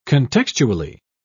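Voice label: "contextually", British English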